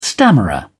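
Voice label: "stammerer", British English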